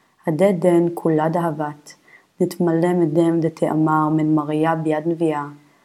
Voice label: Matthew Reading Practice, Aramaic